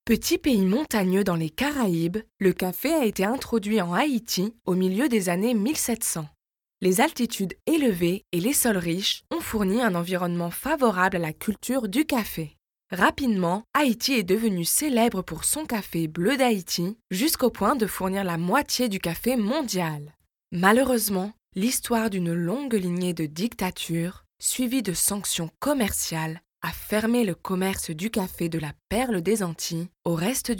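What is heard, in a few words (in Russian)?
голоса, French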